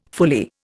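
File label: "fully", Indian English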